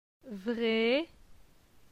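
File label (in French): "Vre", French; phonetique; la syllabe e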